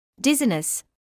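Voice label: "dizziness", British English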